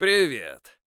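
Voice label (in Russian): "Привет", Russian; Маккри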